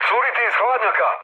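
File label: Serbian damaged water mood low, speech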